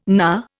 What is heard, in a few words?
"knar", Indian